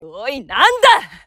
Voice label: japanese Retreat; azurlane hoi4; azurlane tj